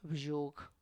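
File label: "bzhug", Tlacochahuaya Zapotec; nail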